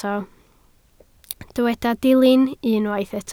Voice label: "dilyn", Welsh

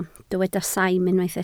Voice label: "saim", Welsh

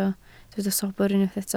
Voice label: "sobr", Welsh

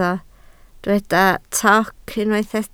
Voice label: "toc", Welsh

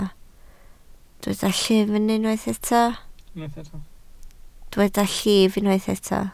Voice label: "llif", Welsh

